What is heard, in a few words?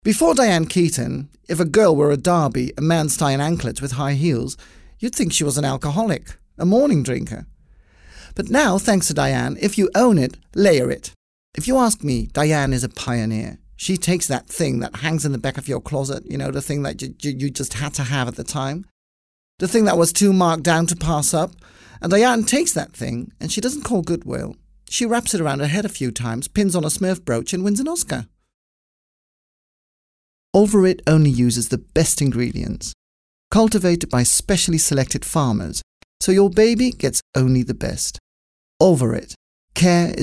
UK english